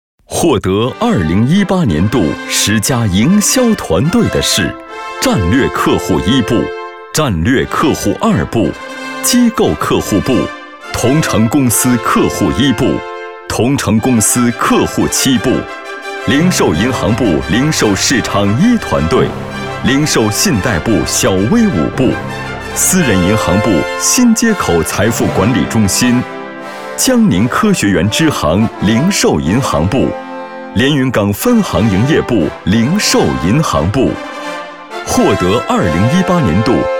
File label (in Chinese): 男 颁奖; 招商银行